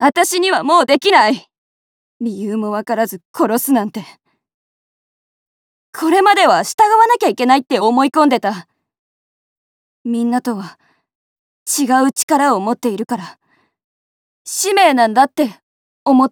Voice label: 女子 外画風

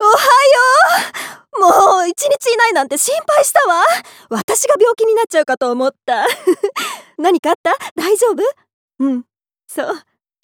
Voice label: 女性

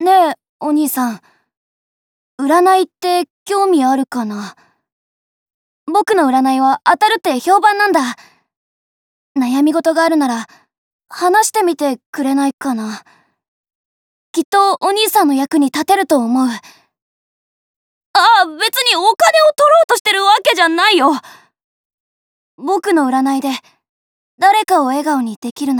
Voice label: 少年

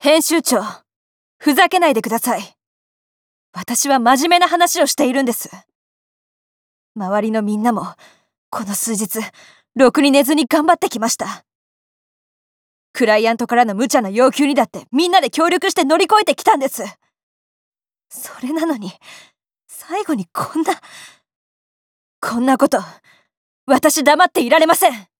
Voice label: 成人女性

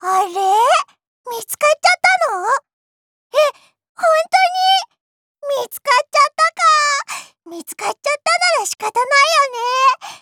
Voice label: 人外

